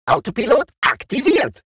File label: robot Autopilot Enabled; World Of Warships